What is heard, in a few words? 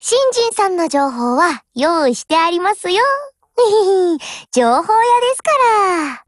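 BUILD OVER Japanese, Voice; GF Alarm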